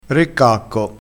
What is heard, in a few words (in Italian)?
"re cacco", crespinese